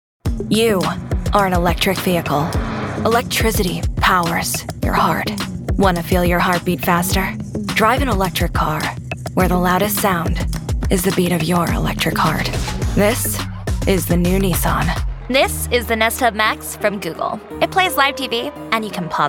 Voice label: Commercial